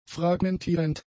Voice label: "fragmentierend", German; adjectives

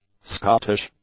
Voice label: "Scottish", english; adjectives

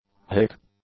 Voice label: "hick", English; nouns

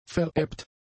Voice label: "verebbt", German; adjectives

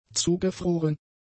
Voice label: "zugefroren", German; adjectives

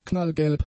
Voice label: "knallgelb", German; adjectives

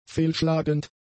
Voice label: "fehlschlagend", german; adjectives

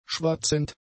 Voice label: "schwatzend", German; adjectives

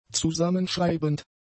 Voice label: "zusammenschreibend", German; adjectives

